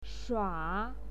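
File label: "shua", Mandarin Chinese, third tone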